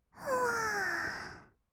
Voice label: "ふわー", kawaii voice; 1200 kawaii voice!!; 色々なワンショット

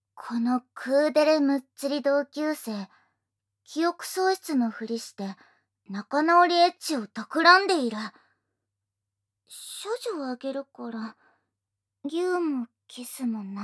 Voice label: タイトルコール, ASMR

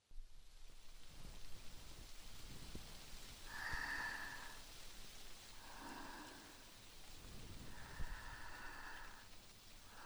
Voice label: 安眠添い寝; 共通ルート

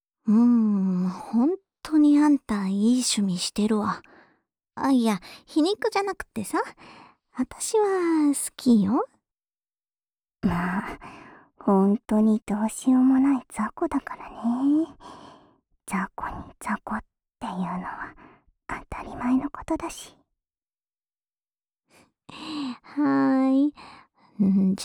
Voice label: 罵り手コキ 二人同時

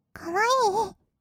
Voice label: かわいい, kawaii voice; ASMR; 色々なワンショット

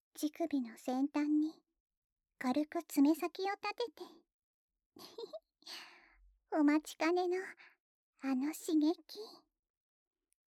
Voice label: カリカリ ゆっくり, 左耳 ロリ ループ音声; 乳首オナ指示でカリカリタイム

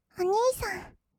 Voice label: "お兄さん", kawaii voice; ASMR; 二人称; 1200 kawaii voice!!

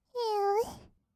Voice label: "へう", kawaii voice; 1200 kawaii voice!!; 色々なワンショット

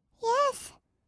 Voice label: "イェス", kawaii voice; 1200 kawaii voice!!; 色々なワンショット

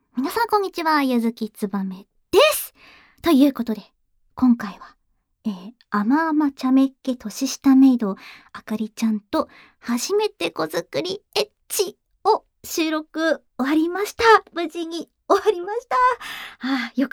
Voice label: フリートーク; 年下メイドあかりちゃん

